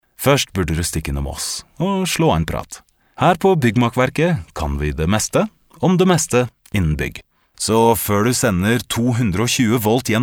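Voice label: Norwegian, male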